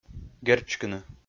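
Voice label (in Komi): "герчкыны", Komi, male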